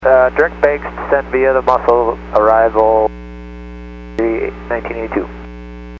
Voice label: Aviation 121.5 Emergency

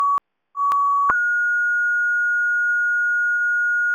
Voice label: Police Sheriff Dispatch

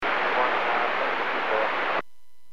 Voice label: Aviation 121.5 Emergency

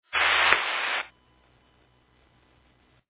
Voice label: Fire Dispatch

